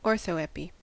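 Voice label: "orthoepy", American English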